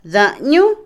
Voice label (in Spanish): Otomí, mujer; carretera; Movimiento; INALI